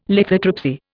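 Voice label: "lithotripsy", Indian English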